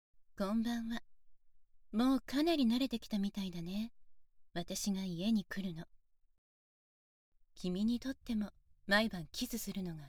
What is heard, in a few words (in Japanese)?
近所の変態キス魔お姉さんのほろ酔い濃厚耳舐め; SE無し